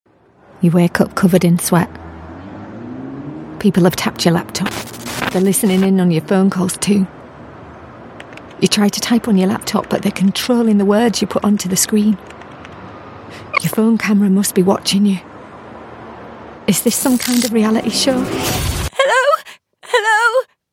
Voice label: character, Yorkshire and Neutral English Voiceover